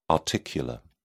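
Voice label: "articular", English, British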